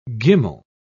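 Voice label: "gimel", British English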